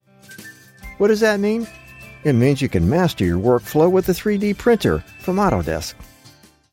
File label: cocky casual